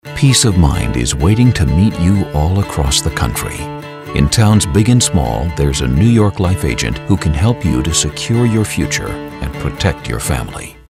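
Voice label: genuine informative caring, voice-over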